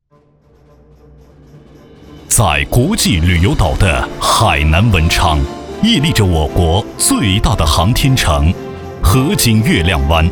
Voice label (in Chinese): 男 气势, 国语男声配音, 浑厚; 浑厚专题